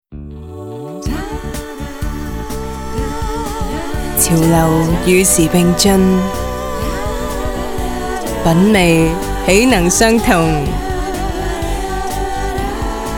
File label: TVC; MELIN